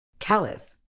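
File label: "callus", British English; ˈkæl.əs; KAL-uhss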